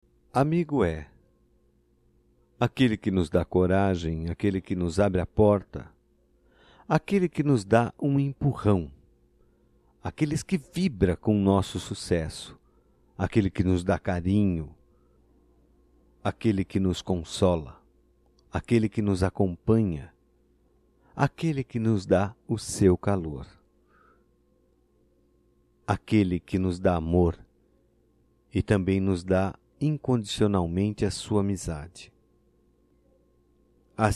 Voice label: M; DIA DO AMIGO; Telemensagens 2017; Datas Mistas

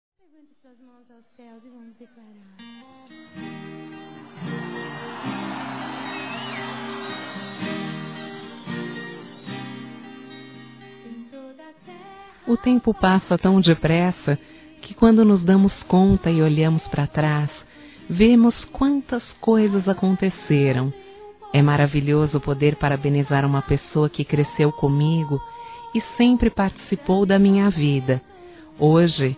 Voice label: Irmã Feminino; Telemensagens 2017

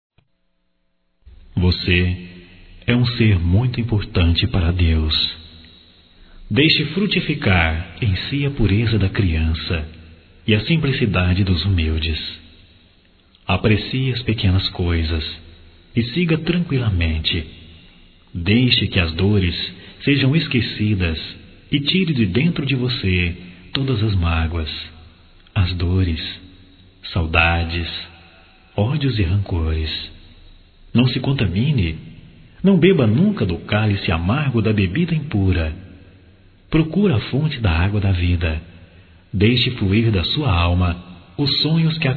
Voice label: Otimismo Geral Masculino

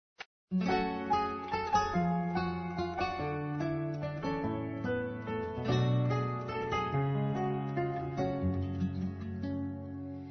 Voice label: BODAS DE PRATA (F), Telemensagens; Amor; Telemensagens 2017

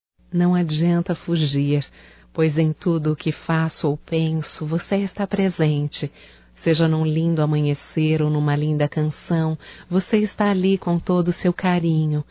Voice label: SAUDADES ROMANTICA, Telemensagens, Só Voz, fem

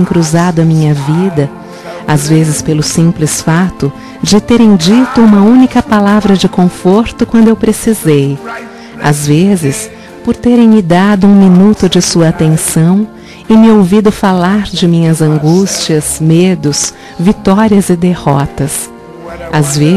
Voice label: TELEMENSAGEM AMIZADE PARA AMIGA VOZ FEM

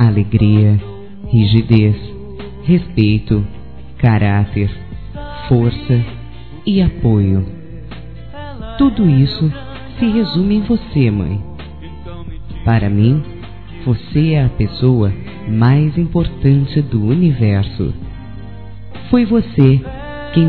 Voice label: MÃE CARINHO F; Telemensagens 2017

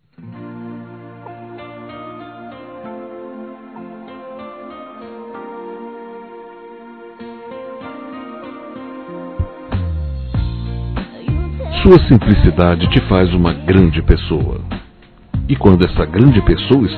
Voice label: Agradecimento pelo Convite Masculino; Telemensagens; Convites e Agradecimentos; Telemensagens 2017

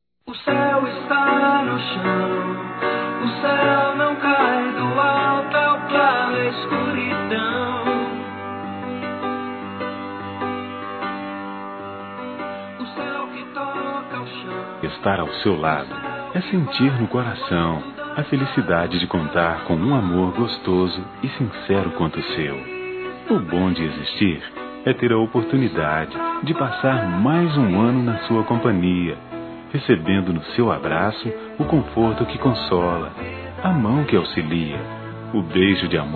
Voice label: Ano Novo Romântico Masculino, Telemensagens; Natal e Ano novo

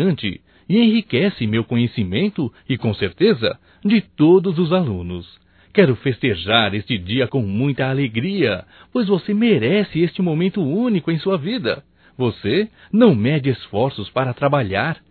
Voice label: Dia Professor Masculino, Telemensagens, Só Voz; DATAS COMEMORATIVAS

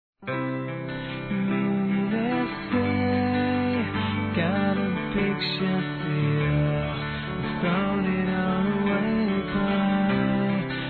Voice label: ANIV. NAMORO M; Aniversários; ANIVERSARIO romantico; masc

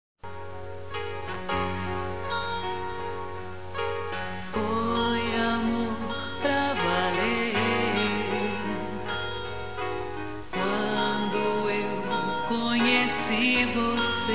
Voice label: ROMANTICA F, Telemensagens, Gospel; fem; Telemensagens 2017

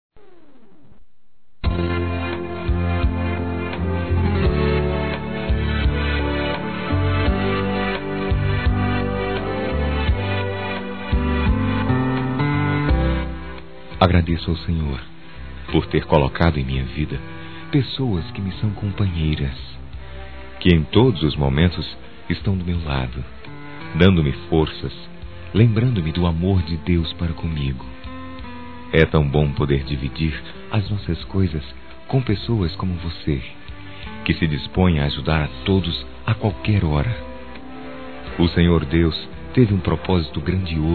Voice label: EVANGÉLICO, Telemensagens, Gospel, masc

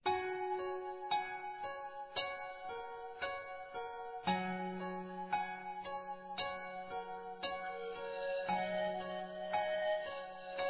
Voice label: NAMORO ANTIGO F; Aniversários; ANIVERSARIO romantico; fem

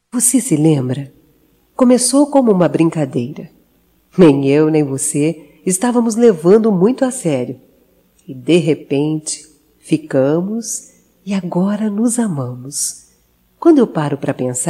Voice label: Romântica Feminino, Telemensagens, Só Voz; Telemensagens 2017

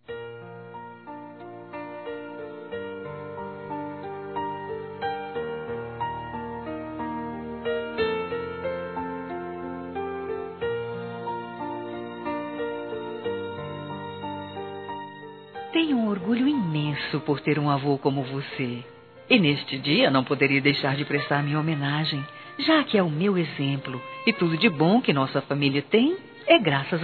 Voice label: Aniversário Avô Feminino

